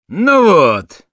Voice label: negative vocalization, ru

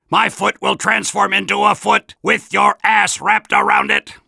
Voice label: robot; Soldier

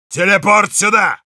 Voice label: need teleporter, ru

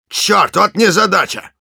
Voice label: negative vocalization, ru; Engineer

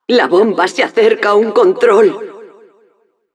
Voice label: cart attacker warning, es

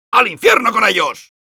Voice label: battlecry

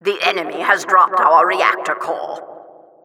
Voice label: Announcer capture flag enemy dropped

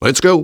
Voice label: Eng taunt

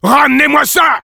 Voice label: Sniper move up, fr

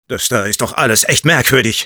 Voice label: jeers, de

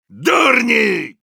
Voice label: Soldier taunts, ru

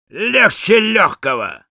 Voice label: positive vocalization, ru

